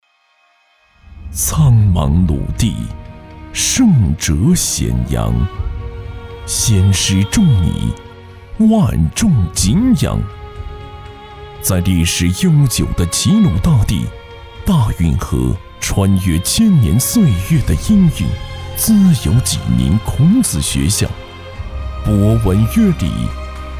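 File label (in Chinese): 宣传, 男 中年 普通话; 美育走向大众教育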